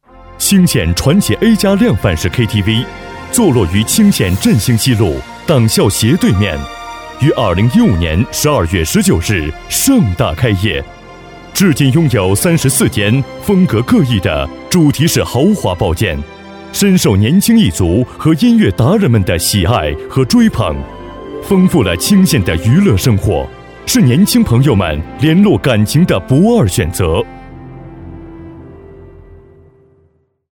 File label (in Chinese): 男95-专题-KTV企业介绍抒情大气